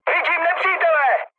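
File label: enemy detected, Czech, mood med; War Thunder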